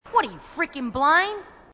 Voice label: female marine, marine tough